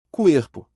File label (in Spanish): Cuerpo en portugues